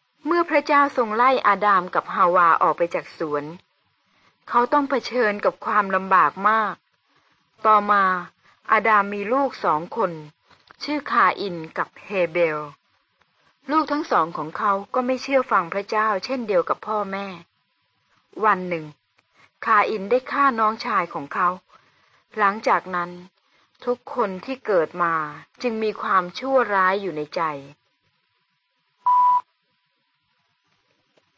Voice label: good news short thai central